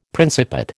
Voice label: "Principate", American

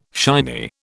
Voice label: "shiny", British

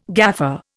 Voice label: "gaffer", Indian

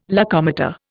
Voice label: "locomotor", Indian

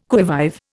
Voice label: "qui vive", Indian

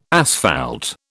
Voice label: "asphalt", British

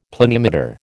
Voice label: "planimeter", American

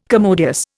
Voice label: "commodious", Indian

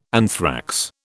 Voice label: "anthrax", British

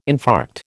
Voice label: "infarct", American

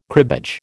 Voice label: "cribbage", American

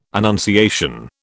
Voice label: "annunciation", British

